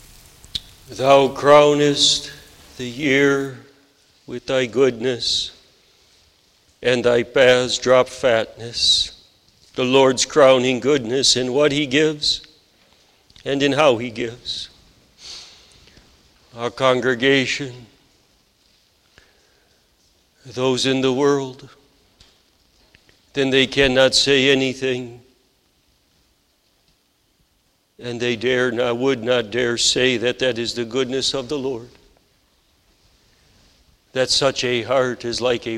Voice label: Sermon Continued; The Lord's Crowning Goodness; psalm 6511